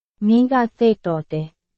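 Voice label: Burmese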